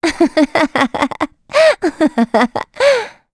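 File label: Vox Happy, English; Gremory; kingsraid; voices heroes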